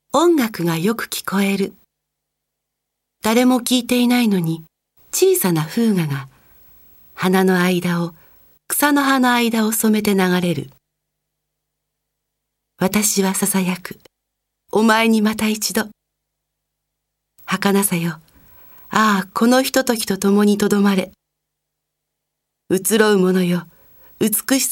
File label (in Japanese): 朗読